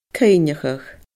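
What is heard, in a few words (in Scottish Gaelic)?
"cuimhneachadh", Scottish Gaelic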